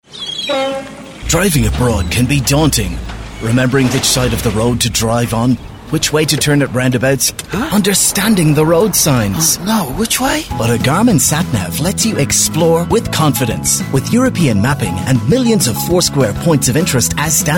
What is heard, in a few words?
British Accents